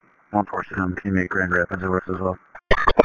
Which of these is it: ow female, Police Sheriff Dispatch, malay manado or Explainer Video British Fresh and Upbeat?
Police Sheriff Dispatch